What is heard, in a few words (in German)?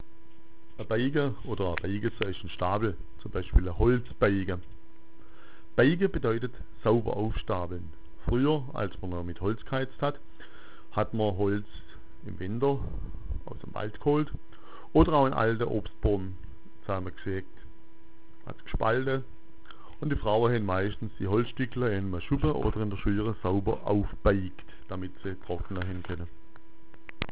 "beiga", schwaebisch